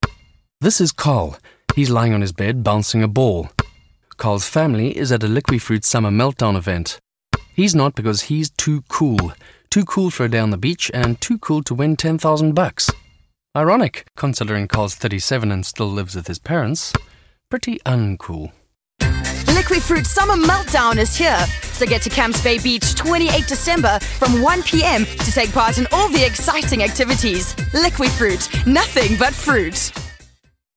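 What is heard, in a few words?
M, South African English, male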